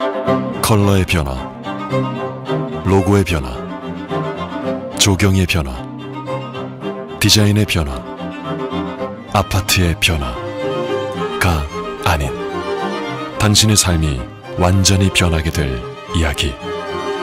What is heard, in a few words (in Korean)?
나레이션 시크 고급스러운; 푸르지오